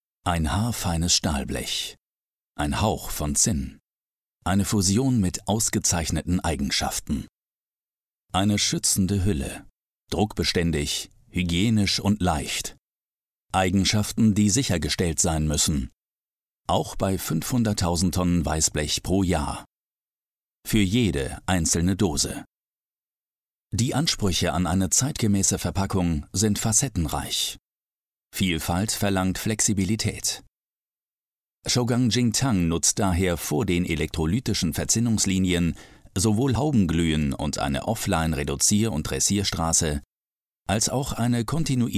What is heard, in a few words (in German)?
Industriefilm; sms siemag; shougang jingtang